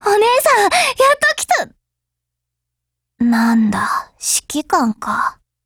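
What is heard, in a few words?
HELLO, Japanese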